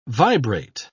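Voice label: "vibrate", English word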